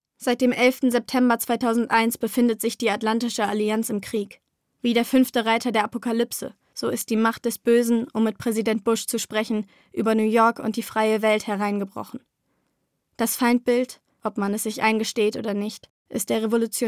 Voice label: casting kommentar

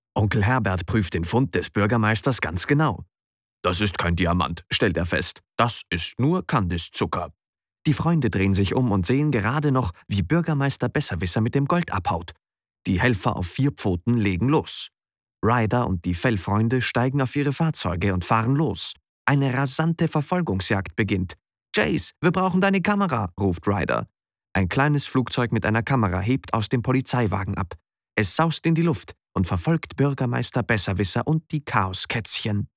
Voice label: Hoerbuch; Besserwisser; LOFT VOICES

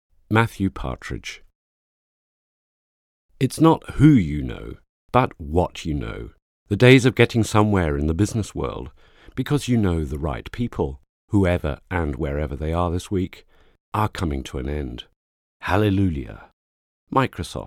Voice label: uk englisch

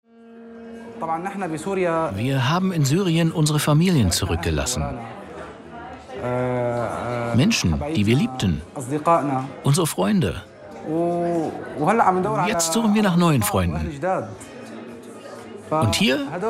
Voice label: voice-over; dieses bunte deutschland

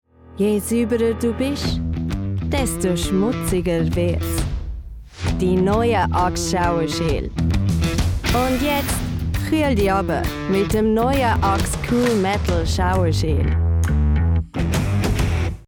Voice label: werbung dialekt CH

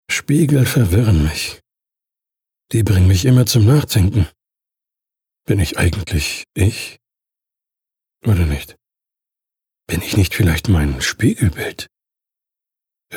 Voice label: Innerer Monolog

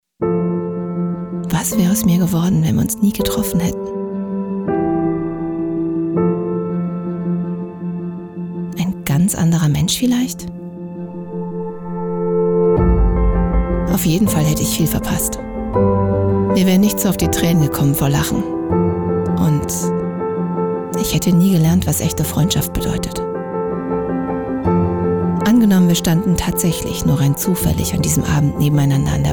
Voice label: TV Spot, Commercial (Werbung), German; Zufall

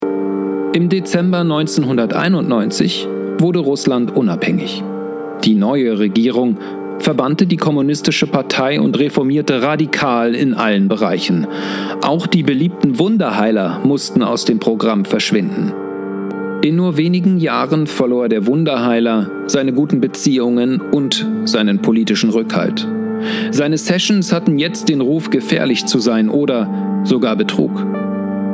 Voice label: Doku; Spotify Original; Sekten Kulte Wunderheiler

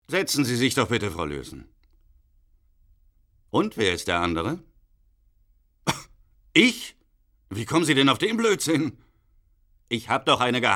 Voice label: synchron

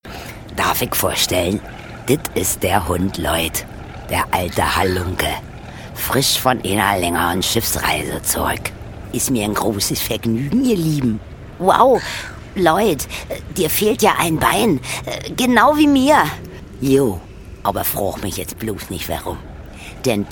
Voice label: Trickstimmen; LOFT VOICES

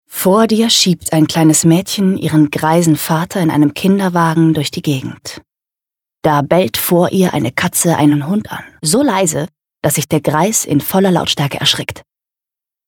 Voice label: Erzählung; Welt auf Kopf

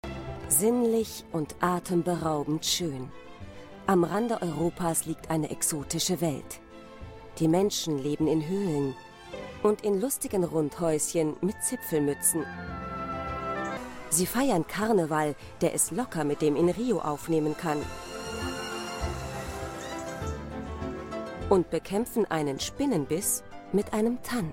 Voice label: Kommentar Doku; NDR; Laender Menschen Abenteuer; Sueditalien; LOFT VOICES

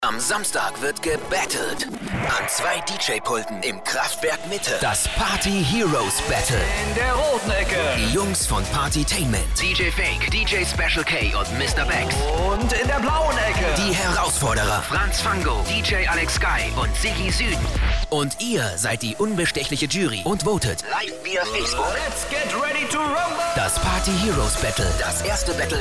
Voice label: Funk Spot; Kraftwerk Mitte; Partyheros Battle

